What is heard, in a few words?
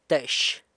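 "teix", San Lucas Quiaviní Zapotec; chest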